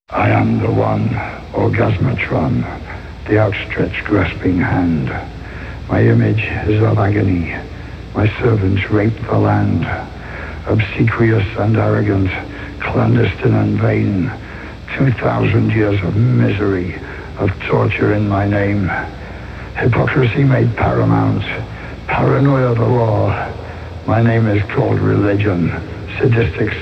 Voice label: Spoken Word